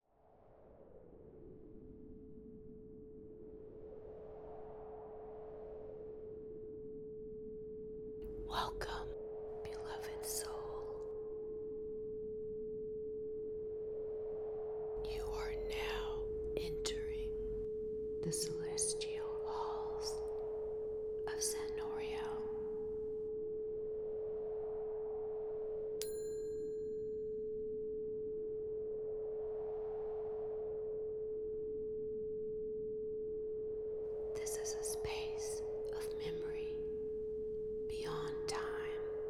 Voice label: whisper activation